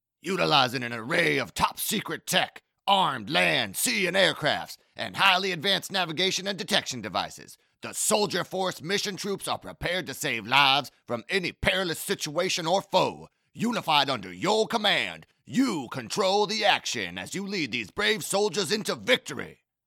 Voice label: Children's Museum (French)